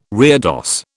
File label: "reredos", British